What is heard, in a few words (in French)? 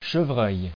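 "chevreuil" French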